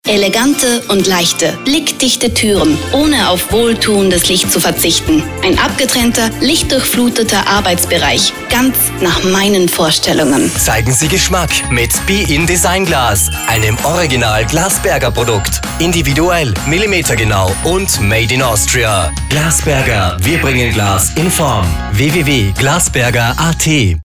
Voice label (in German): Werbespot; Glas Berger 2014